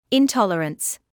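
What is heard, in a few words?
"intolerance", British English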